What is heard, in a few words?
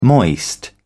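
"moist", British English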